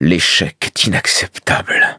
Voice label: defeat, fr; cookie run